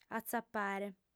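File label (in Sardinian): "atzapare", female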